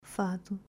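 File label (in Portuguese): "Fado", Portuguese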